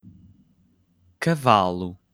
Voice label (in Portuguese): "cavalo", Portuguese